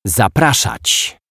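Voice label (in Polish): "zapraszac", Polish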